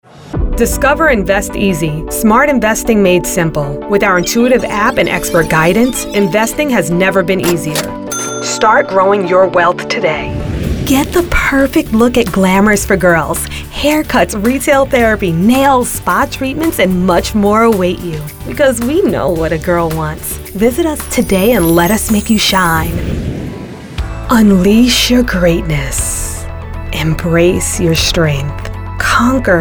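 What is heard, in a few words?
commercial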